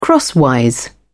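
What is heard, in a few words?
"crosswise", British English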